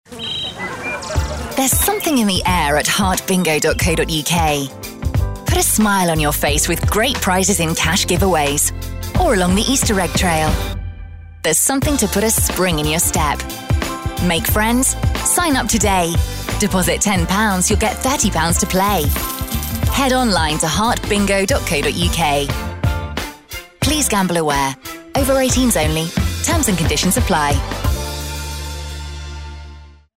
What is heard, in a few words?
promo